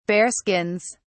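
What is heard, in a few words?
"bearskins", British English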